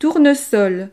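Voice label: "tournesol", French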